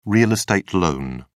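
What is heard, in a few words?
"real estate loan", British English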